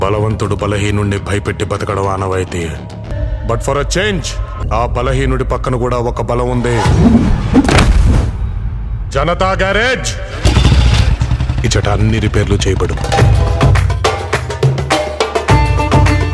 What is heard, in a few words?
Dialogue Telugu Ringtone; NTR